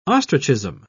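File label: "ostrichism", British English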